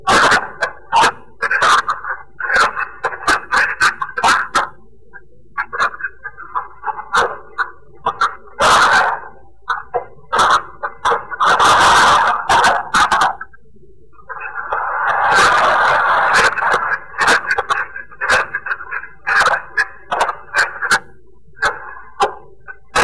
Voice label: message left on answering service sounds like some one getting choked or something